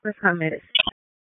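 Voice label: Police Special Operations Tactical; Raleigh; Tac 5; p25; wake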